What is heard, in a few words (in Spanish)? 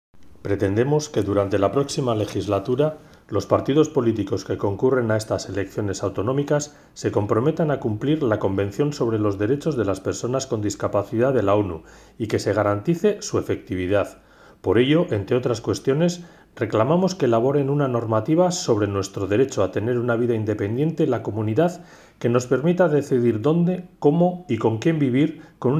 castellano; derechos de las personas con discapacidad